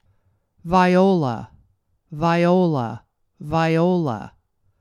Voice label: "Viola", pronunciation